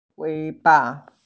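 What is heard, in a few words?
"weiba", Mandarin Chinese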